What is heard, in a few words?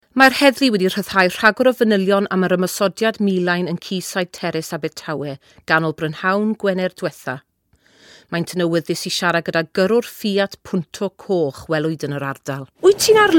Welsh